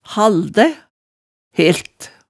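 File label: "haldæ", Hemsedal dialect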